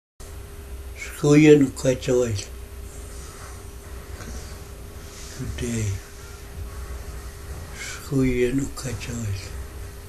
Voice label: yurok language phrase